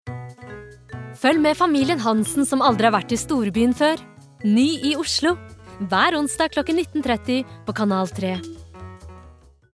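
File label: Norwegian promo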